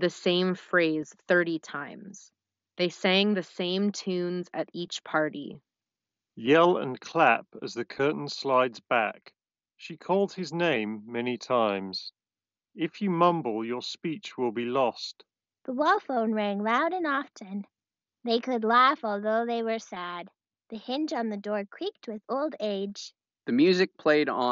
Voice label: Apple AirPods Max Ideal microphone sample